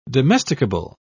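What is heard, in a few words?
"domesticable", British English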